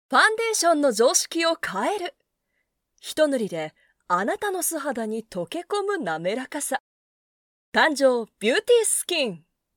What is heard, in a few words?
female